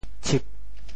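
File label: "tship4", Teochew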